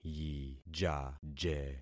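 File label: "yijaje", concatenated syllables; Affixing experiment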